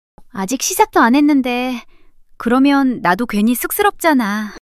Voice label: shy; monologue